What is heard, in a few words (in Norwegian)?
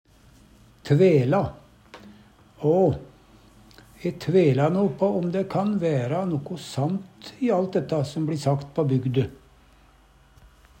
tvele, Numedalsmål